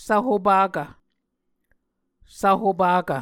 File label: "svhopakv", Muscogee